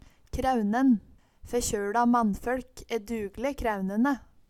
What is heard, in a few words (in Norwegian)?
kraeunenn, Numedalsmål